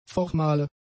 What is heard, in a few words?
"formale", German; adjectives